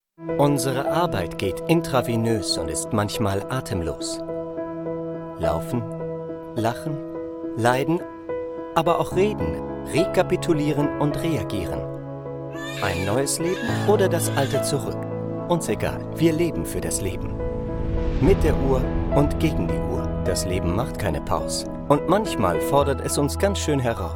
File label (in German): Imagefilm Krankenhaus